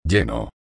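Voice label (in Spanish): "lleno", Spanish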